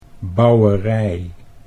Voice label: "bouwerij", Dutch

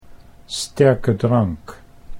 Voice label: "sterke drank", Dutch

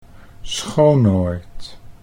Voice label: "Schoonoord", Dutch

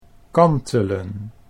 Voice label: "kan telen", Dutch

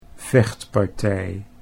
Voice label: "vechtpartij", Dutch

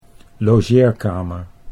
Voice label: "logeerkamer", Dutch

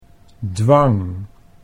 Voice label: "dwang", Dutch

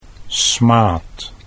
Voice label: "smaad", Dutch

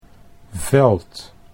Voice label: "veld", Dutch

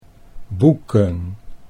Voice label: "boeken", Dutch

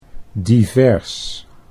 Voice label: "divers", Dutch

